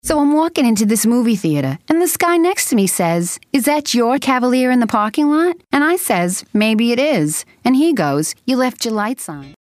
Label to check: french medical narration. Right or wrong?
Wrong